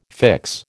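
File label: "fix", American English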